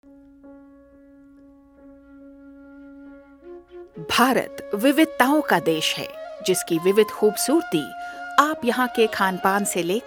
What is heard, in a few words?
Documentary Hindi; Bharat